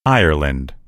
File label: "ireland", en_US; gcompris; geography